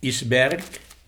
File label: "iceberg", es